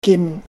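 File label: "kim2", Hakka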